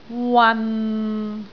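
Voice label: "wan6", Cantonese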